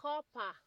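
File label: "corper", Nigerian Pidgin, female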